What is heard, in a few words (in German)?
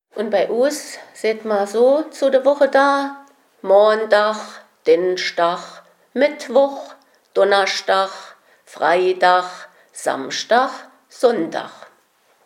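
wochentage, O-Ton Hunsrück; Damflos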